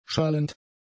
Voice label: "schallend", german; adjectives

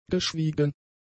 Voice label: "geschwiegen", German; adjectives

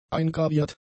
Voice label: "eingraviert", German; adjectives

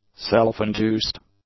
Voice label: "self-induced", English; adjectives

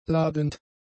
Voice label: "ladend", German; adjectives

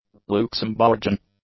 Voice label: "Luxembourgian", english; adjectives

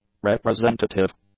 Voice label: "representative", English; adjectives

